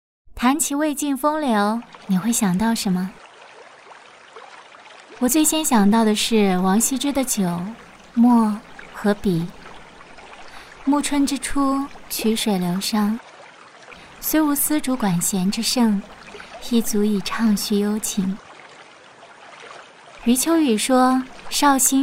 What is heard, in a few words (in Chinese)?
女 旁白; 兰亭文案